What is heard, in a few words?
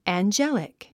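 "angelic", English word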